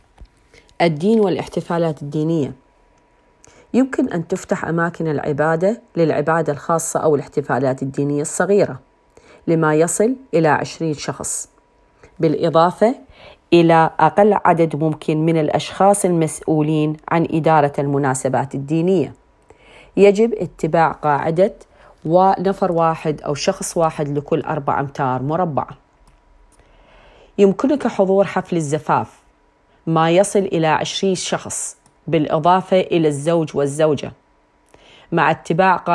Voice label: Arabic audio, covid-19